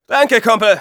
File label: thanks, de; Scout